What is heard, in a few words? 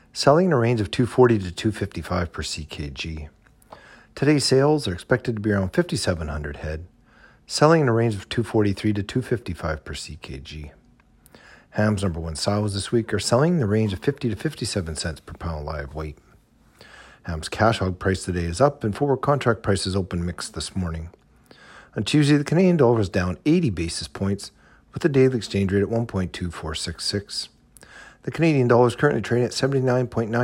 Markt Commentary